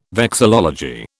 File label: "vexillology", British